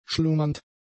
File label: "schlummernd", German; adjectives